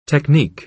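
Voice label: "technique", American English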